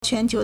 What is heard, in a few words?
"quan2 qiu2", Chinese